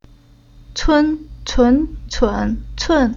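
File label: "Cun", Chinese syllable; Уроки фонетического блока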